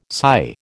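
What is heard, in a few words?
"psi", American English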